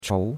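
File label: "chou2", Chinese; Cours-de-Chinois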